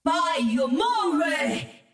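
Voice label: Chinese; 奥丽莎（守望先锋2）; 终极技能; 简体中文; Gbà ayanmọ rẹ! (接受命运的制裁！)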